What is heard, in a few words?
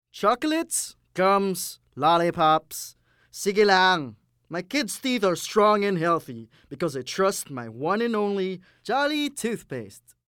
Taglish (Confident, Enthusiastic, Easy, Calm)